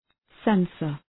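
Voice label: "censor", English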